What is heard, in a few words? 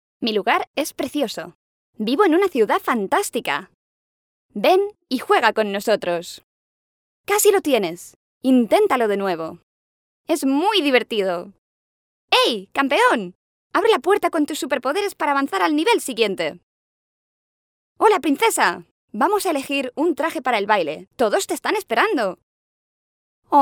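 Spanish female children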